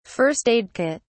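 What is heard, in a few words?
"first-aid kit", British English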